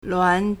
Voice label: "luan2", Chinese